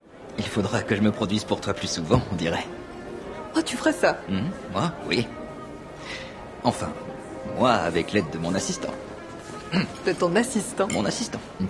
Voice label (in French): Doublage drole a deux voix; Star City; Apple TV